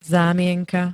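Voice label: "zámienka", Slovak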